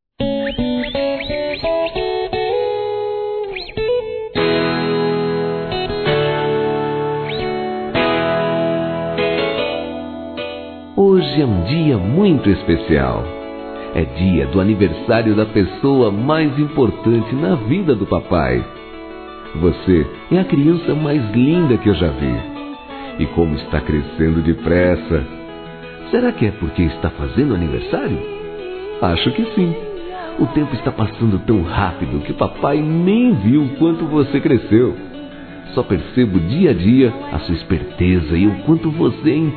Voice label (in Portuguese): Masculino, Telemensagens Aniversários; Filho Criança; Telemensagens 2017; Aniv Familiar